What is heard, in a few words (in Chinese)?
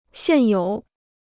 "现有", Chinese